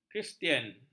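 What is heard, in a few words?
"kristian", Malay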